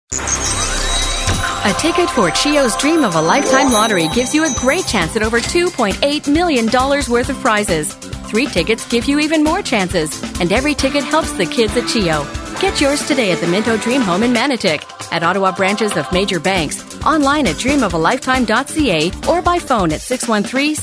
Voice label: commercial